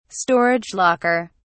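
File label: "storage locker", English, British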